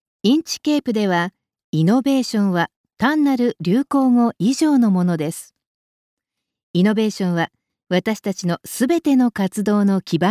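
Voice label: Japanese, female, promo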